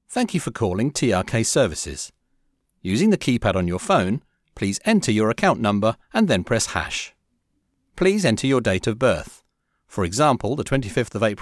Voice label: IVR Example